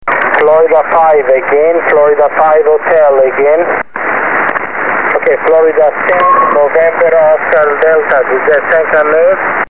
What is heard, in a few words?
160 ssb